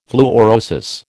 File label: "fluorosis", American